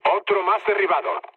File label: player killed enemy, mood low, speech